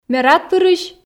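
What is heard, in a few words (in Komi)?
ме радпырысь, Komi, female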